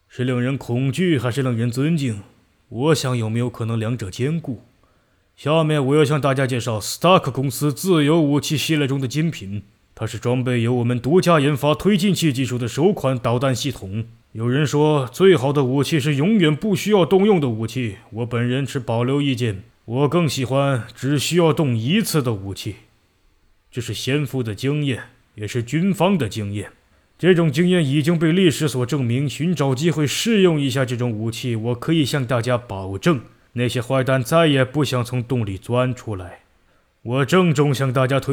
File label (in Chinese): 青叔声线